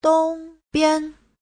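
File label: "dōngbian", Mandarin Chinese; Giáo trình Hán ngữ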